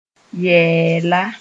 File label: "yɛɛla", moore